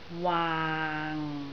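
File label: "waang6", Cantonese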